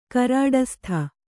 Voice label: "ಕರಾಡಸ್ಥ", Kannada

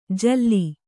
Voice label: "ಝೂಲು", Kannada

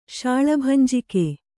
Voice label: "ಶಾಳಭಂಜಿಕೆ", Kannada

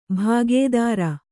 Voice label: "ಭಾಗೇದಾರ", Kannada

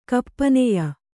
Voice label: "ಕಪ್ಪನೆಯ", Kannada

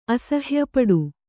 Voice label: "ಅಸಹ್ಯಪಡು", kannada

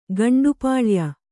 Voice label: "ಗಂಡುಪಾಳ್ಯ", Kannada

